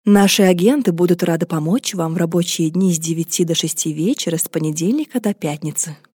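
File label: Russian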